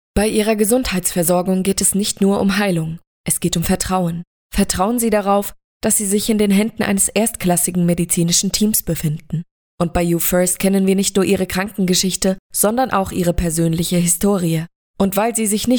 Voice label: Sincere